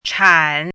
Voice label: "chan3", pinyin